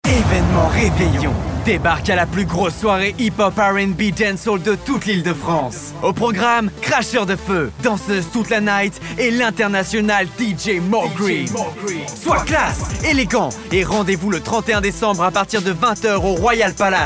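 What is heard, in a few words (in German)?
French, Fremdtake Cool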